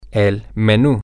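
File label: the menu, Spanish, male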